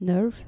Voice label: "nerve", dutch